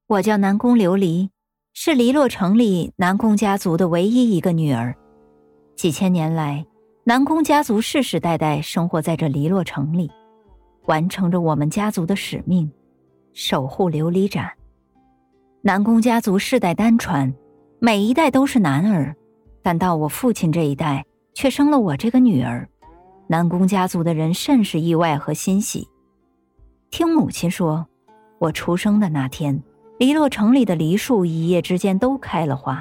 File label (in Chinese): 旁白